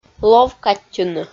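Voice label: "лов каттьыны" Komi, female; kpv audio dict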